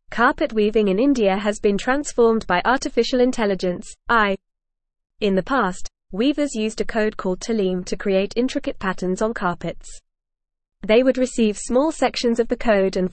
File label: English FAST Reading; English Newsroom; Revolutionizing Carpet Weaving in India with AI Tec